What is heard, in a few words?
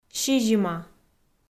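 "сизима", Komi, female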